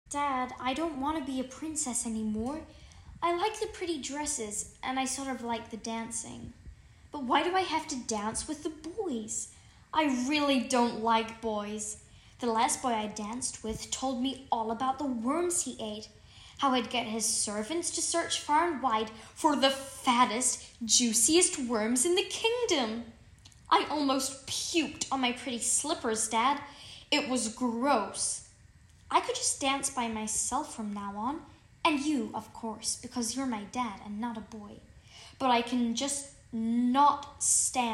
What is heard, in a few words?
US Accent